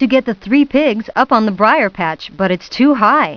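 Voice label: too high story narration; Putt Time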